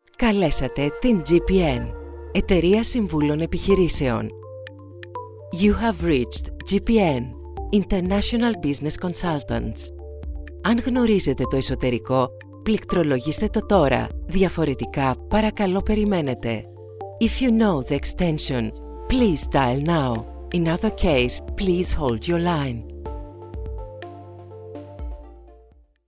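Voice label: female greek interactive voice response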